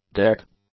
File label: "deck", English; nouns